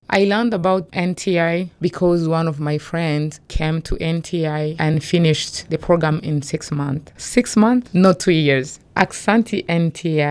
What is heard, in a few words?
VO Medical Assistant Testimonial